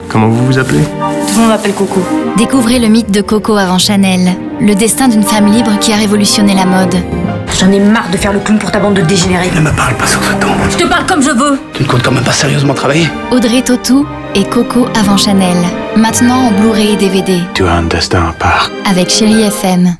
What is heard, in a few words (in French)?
ANNONCE FILM; Coco avant Chanel